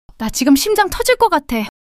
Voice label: fluster, monologue

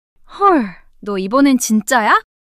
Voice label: surprise, monologue

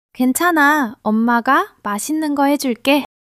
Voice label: joy

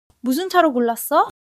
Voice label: excitement

